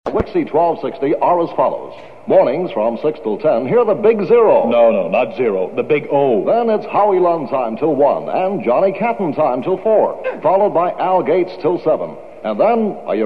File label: lineup promo; WIXY